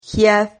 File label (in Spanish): "giad", Silabas